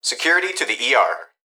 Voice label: Emergency Human Young Male Voice Hospital Calls Security To The ER; emergency effects